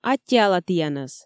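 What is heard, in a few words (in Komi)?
"аттьӧала тіянӧс", Komi, female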